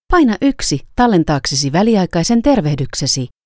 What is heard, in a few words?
finnish Record Temporary Greeting